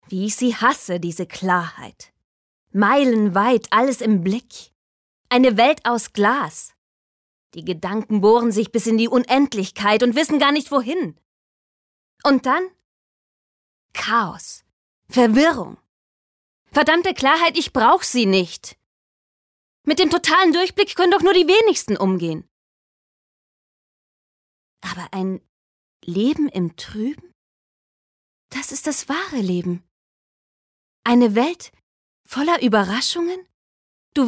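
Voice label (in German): Schauspiel Dynamisch; Klarheit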